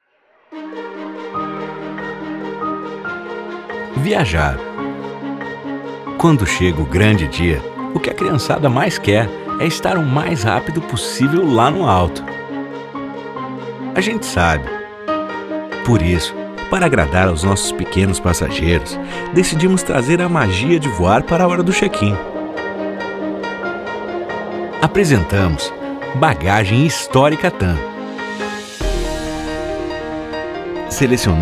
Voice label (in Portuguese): Emocional; TamMuseu